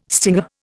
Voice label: "stinger", Indian